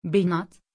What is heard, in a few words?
pronunciation Beñat, Türkisch